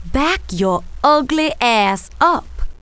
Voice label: cuss, female, profanity; kingpin loc